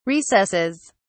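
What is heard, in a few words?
"recesses", British English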